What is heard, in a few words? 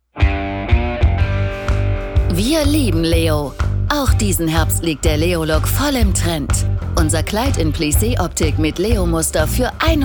female german commercial; ideasonora